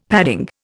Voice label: "padding", Indian; Dictionary